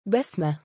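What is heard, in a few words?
"basmah" female name, Arabic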